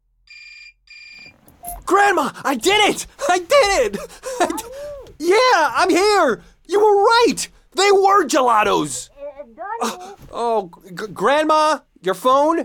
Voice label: tutorial superfast; Blind Drive